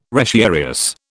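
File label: "retiarius", British English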